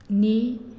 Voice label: "We", Naasioi

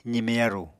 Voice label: "zime yaru", Apatani

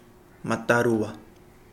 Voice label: twenty, Kapingamarangi

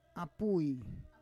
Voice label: "apui", Roon

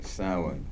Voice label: "right", Kibembe

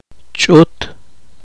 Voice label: poqomchi; especie de rbol

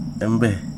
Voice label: "that", Wahgi; lae unitech

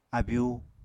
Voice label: "abyu", apatani

